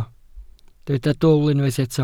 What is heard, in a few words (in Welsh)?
"dwl", Welsh